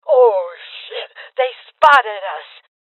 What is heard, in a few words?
Female Old; Alarm State; rebel cops 2019 gamerip